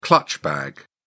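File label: "clutch bag", English, British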